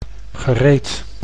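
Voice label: "gereed", Dutch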